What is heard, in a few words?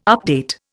"update", Indian